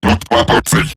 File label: Player Spotted, Robot Large; Warframe; Eximus Corpus Robotic Large; Battle Barks